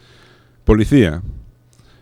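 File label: "policia", Spanish; laboratori fonetica; paraules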